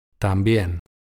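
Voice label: "tambien" pronunciation, Spanish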